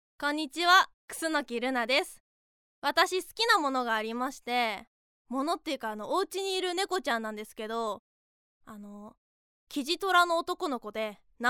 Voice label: Free Talk; フリートーク; bloomz-inc